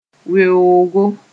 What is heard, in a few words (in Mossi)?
"weoogo", moore